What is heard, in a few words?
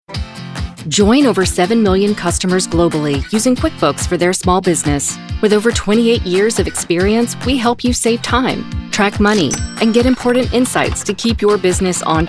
Explainer; QUICKBOOKS